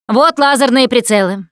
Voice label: laser sights, russian, Survivor Voice Producer

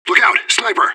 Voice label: radio bot under fire sniper; fbihrt

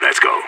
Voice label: radio lock n load; idf

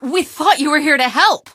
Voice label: here to help, female; Garry's Mod; hl2

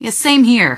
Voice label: answer, female; Garry's Mod; hl2

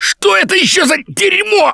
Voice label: grabbed by smoker, russian, Survivor Voice; Left 4 Dead 2; Gambler

